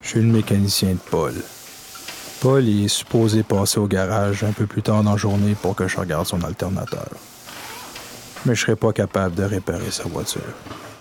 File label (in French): Pub Narree